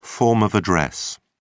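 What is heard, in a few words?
"form of address", English, British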